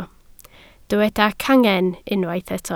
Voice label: "cangen", Welsh

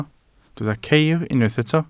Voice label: "ceir", Welsh

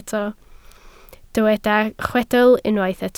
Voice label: "chwedl" Welsh

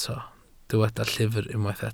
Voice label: "llyfr" Welsh

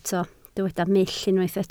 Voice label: "mull", Welsh

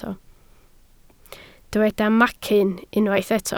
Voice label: "macyn", Welsh

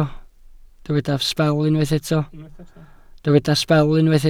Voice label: "sbel" Welsh

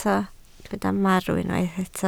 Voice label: "marw", Welsh

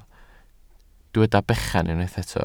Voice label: "bechan", Welsh

